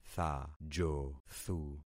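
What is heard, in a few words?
"thajothu", concatenated syllables; Affixing experiment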